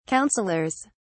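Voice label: "counselors", British English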